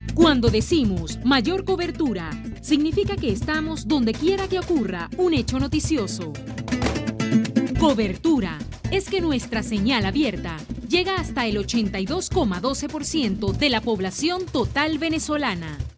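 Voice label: Spanish promo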